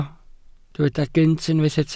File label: "gynt", Welsh